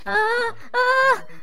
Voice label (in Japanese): ダメージボイス; 悪魔城ドラキュラ(FC版)